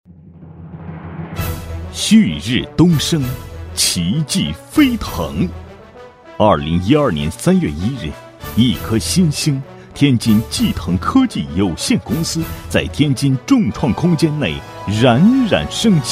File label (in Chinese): 男 激情大气; 天津科技公司